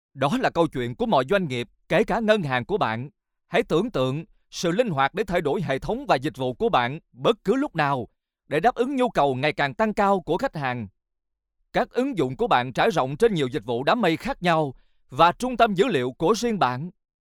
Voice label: vietnamese corporate videos

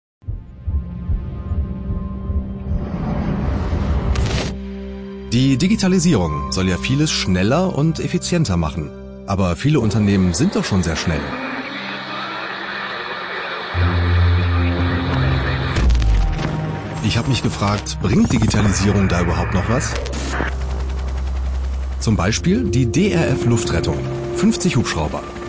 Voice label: German documentaries

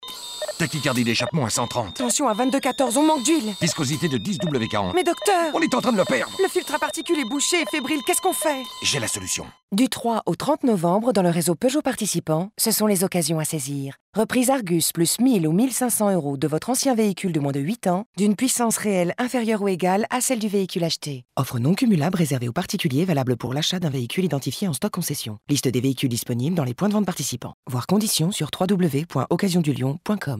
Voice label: french promos

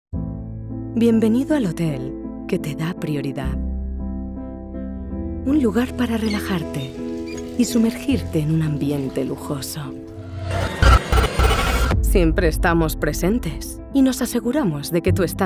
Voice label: spanish movie trailers

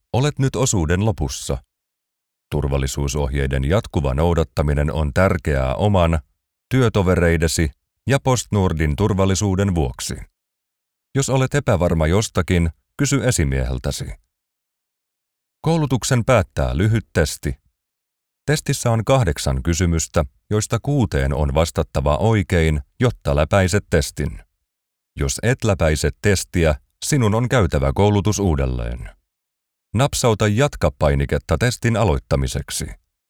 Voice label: finnish training e-learning

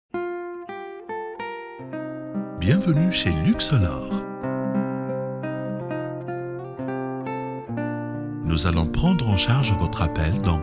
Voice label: french commercial

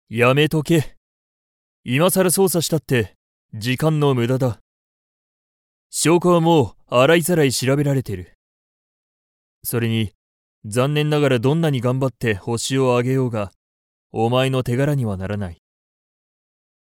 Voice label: japanese video games

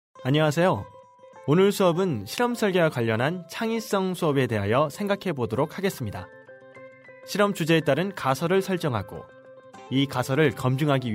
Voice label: korean commercial

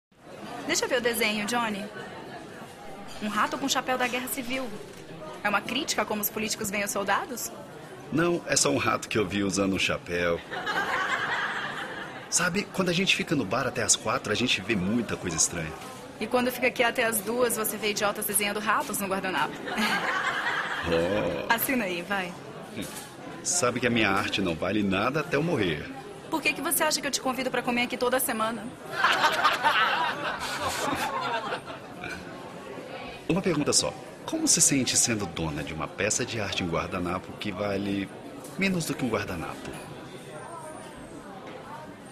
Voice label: portuguese brazilian tv shows

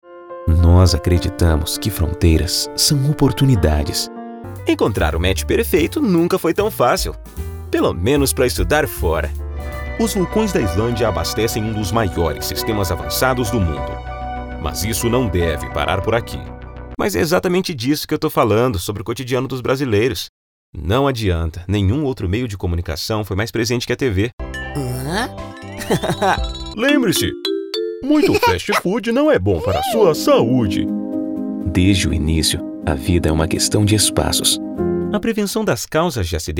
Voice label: portuguese brazilian commercial

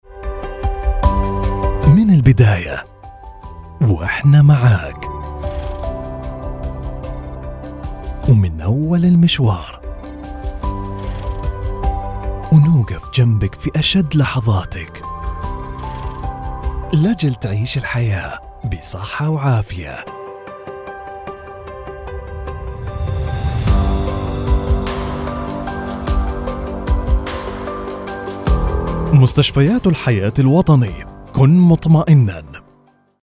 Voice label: arabic medical narration

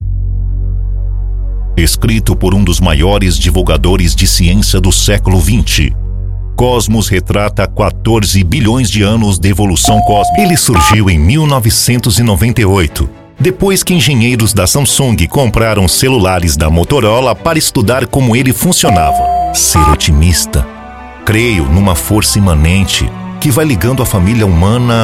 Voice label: portuguese brazilian documentaries

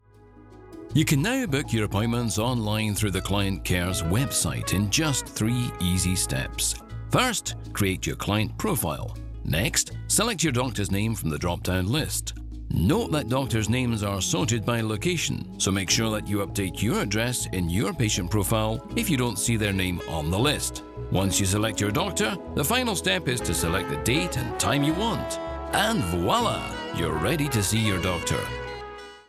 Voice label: english scottish

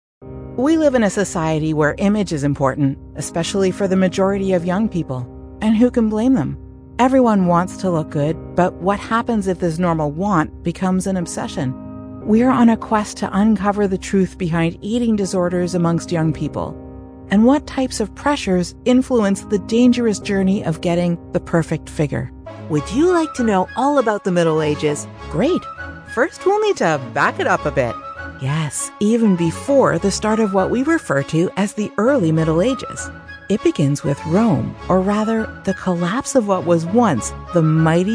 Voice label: english canadian documentaries

